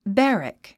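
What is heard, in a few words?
"barrack", English word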